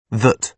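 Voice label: "that", British English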